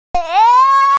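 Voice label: "lv2", Mandarin Chinese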